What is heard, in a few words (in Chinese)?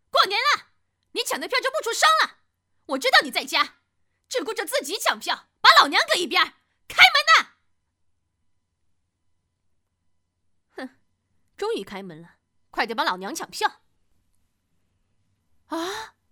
动画 角色; 雪姨